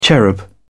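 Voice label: "cherub", British English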